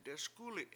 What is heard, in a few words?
"deSkUli7" student, Washo